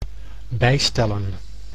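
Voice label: "bijstellen", Dutch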